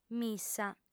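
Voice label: "missa" Sardinian, female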